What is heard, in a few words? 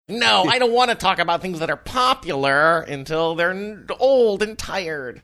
funny